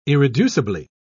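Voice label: "irreducibly", British English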